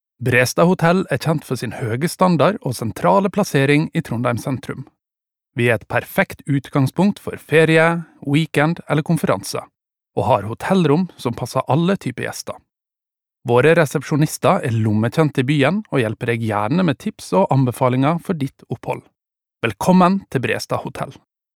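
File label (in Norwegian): no informativ, Norwegian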